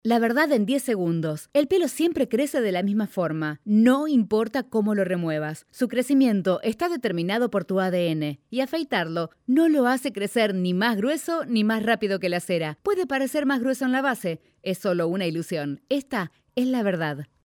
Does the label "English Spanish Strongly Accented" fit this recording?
No